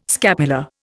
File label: "scapula", Indian